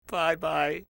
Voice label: "bye bye", voices